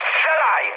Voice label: attack position mood med, speech pol; War Thunder